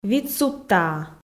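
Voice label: "вит судтаа", Komi, female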